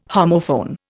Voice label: "homophone", Indian

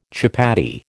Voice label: "chapatti", American

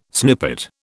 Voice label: "snippet", British

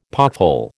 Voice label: "pothole", American

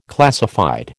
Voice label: "classified", American

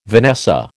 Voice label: "vanessa", American

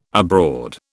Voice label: "abroad", British

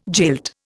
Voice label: "jilt", Indian

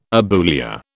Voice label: "abulia", British

